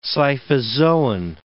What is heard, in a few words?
"scyphozoan", English